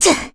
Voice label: Landing, kr; Chrisha; kingsraid